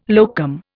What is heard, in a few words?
"locum", Indian